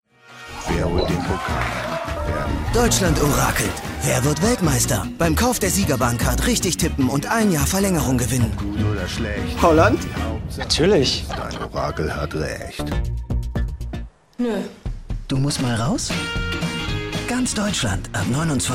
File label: TV SPOT, Commercial (Werbung), German; TV SPOT Deutsche Bahn versch.; medley